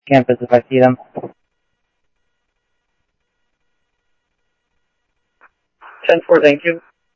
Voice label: Security, scanner audio